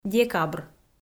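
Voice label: "декабр", Komi, female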